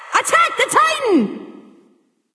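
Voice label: Attack male Buffed; smite; nike; voicepack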